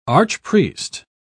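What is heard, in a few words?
"archpriest", English, British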